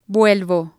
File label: "vuelvo", Spanish